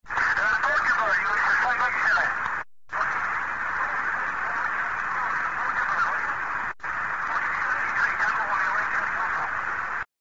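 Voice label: amateur radio contact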